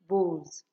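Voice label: "bozz", Nigerian Pidgin, female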